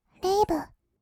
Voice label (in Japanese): レイヴ, kawaii voice; ASMR; 音楽ジャンル